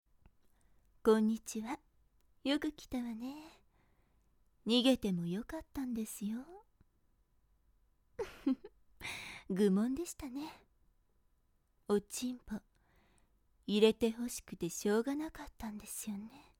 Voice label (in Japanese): 催眠誘導; 女体化; 催眠音声; おち〇ぽ中毒の風俗嬢に、堕ちたボク; お客ファイル